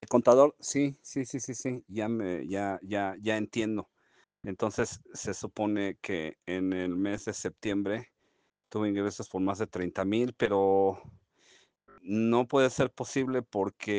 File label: whatsapp ptt